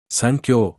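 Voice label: "sankyo", Japanese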